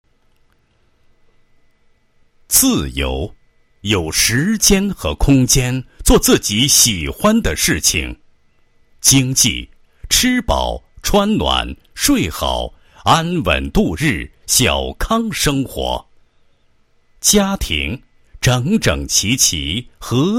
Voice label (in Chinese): 男-党建专题 -某公安监管系统警示教育片, 配音案例